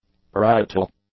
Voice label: "parietal", English; adjectives